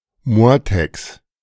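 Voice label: "Motteks", German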